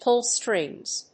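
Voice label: "pull strings", English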